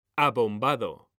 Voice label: "ABOMBADO", H; mekanta

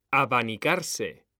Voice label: "ABANICARSE", H; mekanta

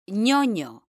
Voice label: "ÑOÑO", M; mekanta

